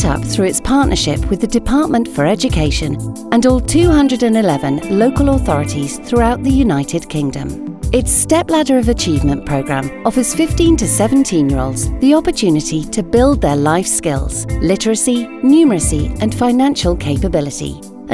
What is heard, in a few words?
Share Foundation advert